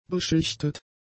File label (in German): "beschichtet", German; adjectives